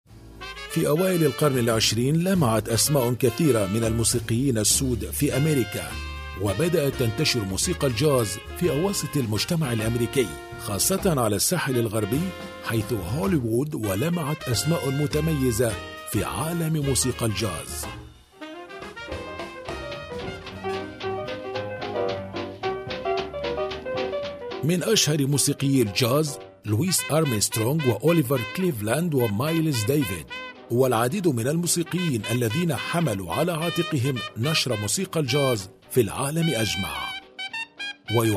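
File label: Arabic, Documentary; GoLocalise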